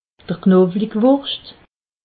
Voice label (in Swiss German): "knowliwurscht", alsace